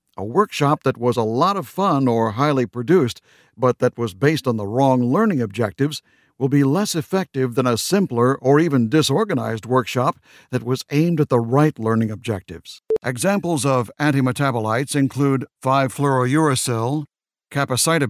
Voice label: Male Voice Over Talents eLearning

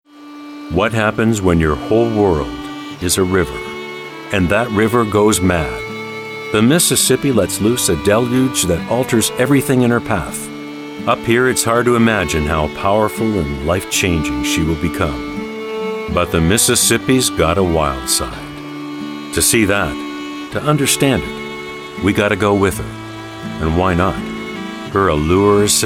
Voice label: Male Voice Over Talent Broadcast Narration

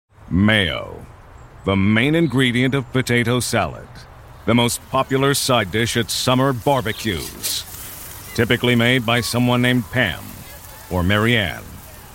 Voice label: Male Voice Over Commercial; Camelback

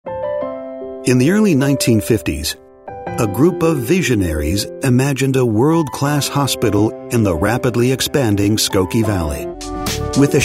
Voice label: Male Voice Over Talents Narration

